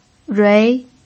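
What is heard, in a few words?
"rui" Mandarin Chinese, second tone, pinyin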